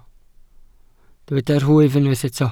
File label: "nwyf", welsh